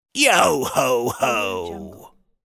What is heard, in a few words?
pirate saying yo ho ho